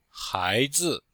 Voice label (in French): "hai2zi5", chinois; Cours de Chinois